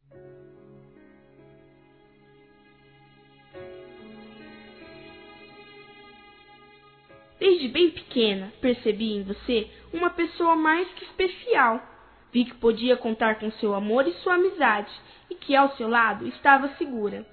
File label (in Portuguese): AVO INFANTIL, Telemensagens Aniversários; Aniv Familiar